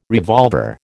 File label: "revolver", American